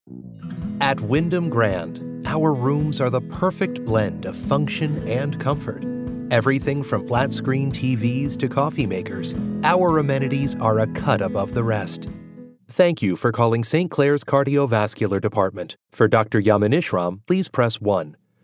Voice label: english american ivr